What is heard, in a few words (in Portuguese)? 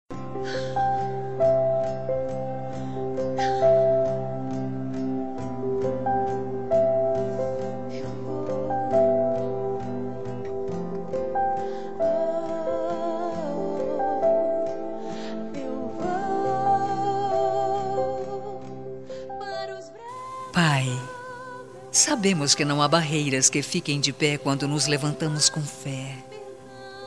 ANIV PAI EVANG FEM, telemensagem evangelica aniversario pai